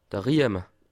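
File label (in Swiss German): "rieme", Bas Rhin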